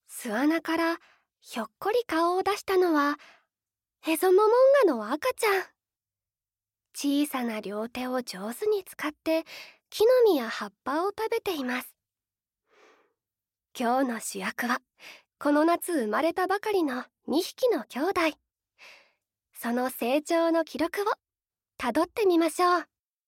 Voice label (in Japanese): ナレーション